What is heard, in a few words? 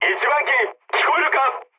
jp chat; War Thunder